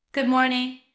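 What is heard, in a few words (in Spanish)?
Voice Acting es F, Spanish, female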